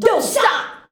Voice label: "dont stop", shouts; Best Service; Hyper Dance